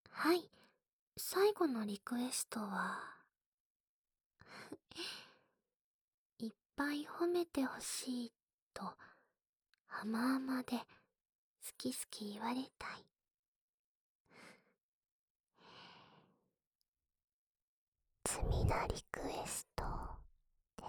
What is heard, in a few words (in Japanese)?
添い寝ささやき, ASMR; 全肯定褒め褒め&好き好き連呼